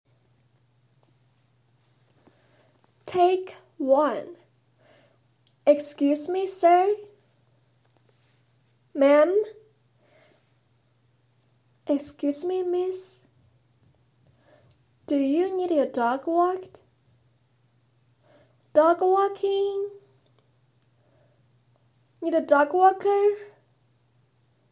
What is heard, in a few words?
Commercial